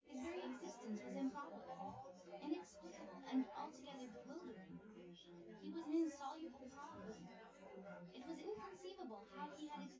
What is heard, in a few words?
female synthconv